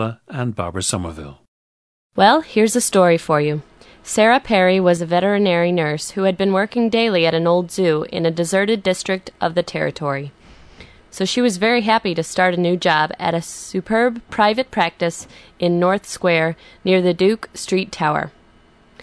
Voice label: missouri, dialects